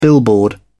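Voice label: "billboard", English, uk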